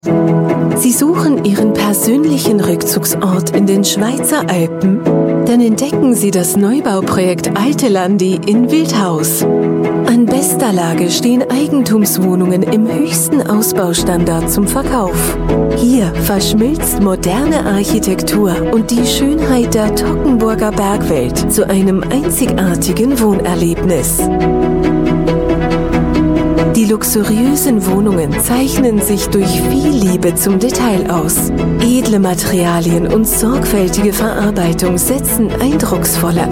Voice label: german voice over female commercial